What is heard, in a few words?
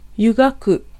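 Japanese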